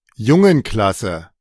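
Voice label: "Jungenklasse", German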